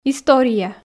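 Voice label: "история", Komi, female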